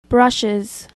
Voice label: "brushes", English